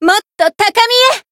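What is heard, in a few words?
Voice Japanese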